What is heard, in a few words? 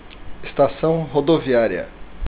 bus stati, portuguese